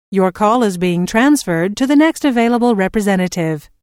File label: Your Call Is Being Transferred To The Next Available Representative, Telephone prompt; Recorded Voice Sound Effects